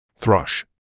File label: "thrush", English